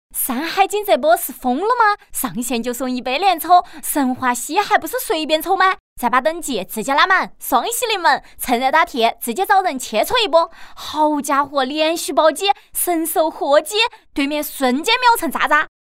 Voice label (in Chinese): 游戏宣传 四川方言